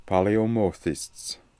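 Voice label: "Paleomothists", pronunciations; Fourth Way; Beelzebub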